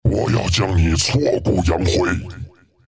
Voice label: kill, zh; Dota 2

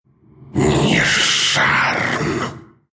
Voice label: attack, ru; dota2

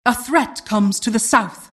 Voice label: announcer generic alert; Vo announcer ann custom

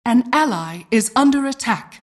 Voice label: announcer generic alert

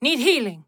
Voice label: Healing; Realm Royale; Mage; Wandering Alchemist

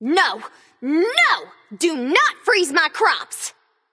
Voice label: Taunt Directed; Cute Cultivator Persephone; Ymir; Smite

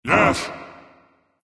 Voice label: Emote Yes; Smite; Void Wyrm Kukulkan

